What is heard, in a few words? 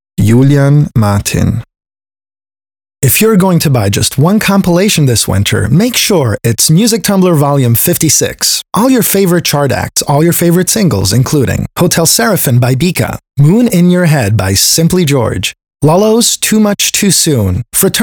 en spot dyn